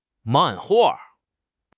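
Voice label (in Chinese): "漫画", Chinese; HSK; HSK6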